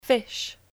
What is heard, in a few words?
"fish", English